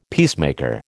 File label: "peacemaker", American